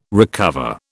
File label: "recover", British